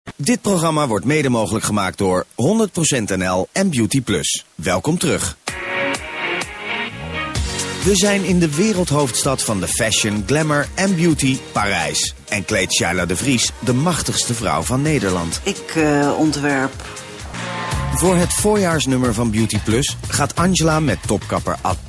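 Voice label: dutch male commercial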